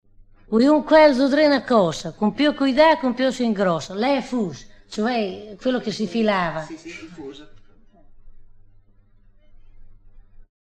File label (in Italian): indovinello, romagna